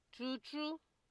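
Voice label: "true true", Nigerian Pidgin, female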